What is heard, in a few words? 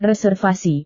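"reservasi", Indonesian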